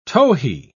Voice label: "towhee", pronounce

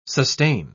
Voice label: "sustain", pronounce

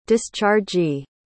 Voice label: "dischargee", pronounce

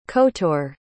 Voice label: "kotor", pronounce

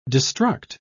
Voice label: "destruct", pronounce

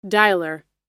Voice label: "dialer", pronounce

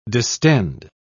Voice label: "distend", pronounce

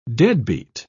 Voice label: "deadbeat", pronounce

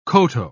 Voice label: "koto", pronounce

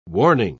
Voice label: "warning", pronounce

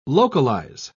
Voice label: "localize", pronounce

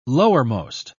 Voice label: "lowermost", pronounce

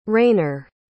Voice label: "rainor", pronounce

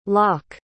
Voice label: "locke", pronounce

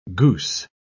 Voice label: "goose", pronounce

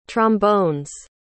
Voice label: "trombone's", pronounce

